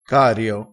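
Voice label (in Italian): "cario", dialettale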